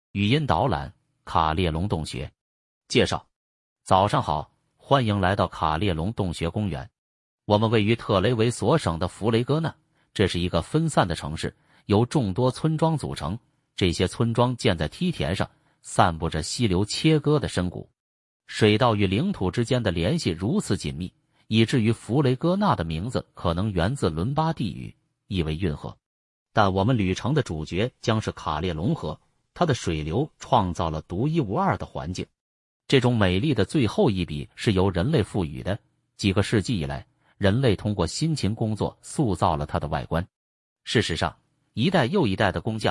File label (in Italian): Audioguida CHI Introduzione